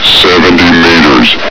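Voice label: "seventy meters", male; hl2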